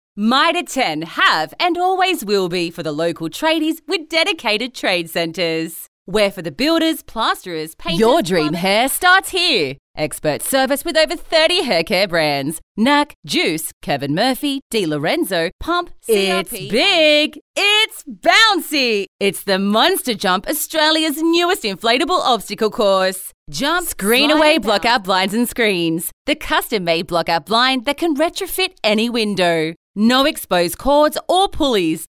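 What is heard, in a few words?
Hard Sell, voice-overs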